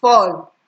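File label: "fall", Nigerian Pidgin, female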